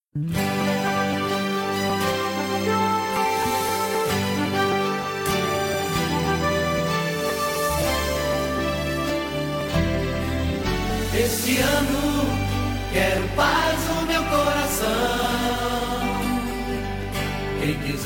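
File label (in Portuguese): ano novo female neutra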